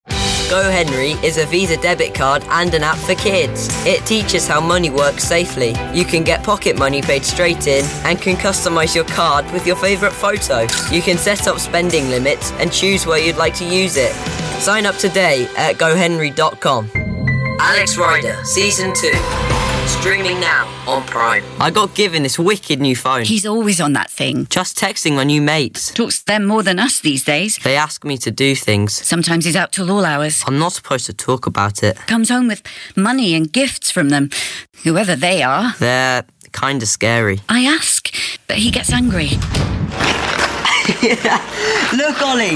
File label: son 13, Relatable Young Female Voice